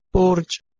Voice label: "borj", Persian